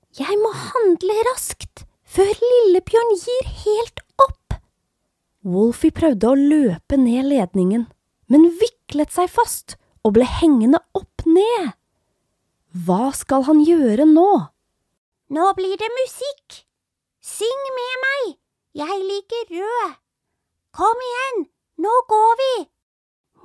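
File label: Norwegian, female, children voices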